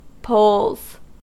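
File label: "poles", US English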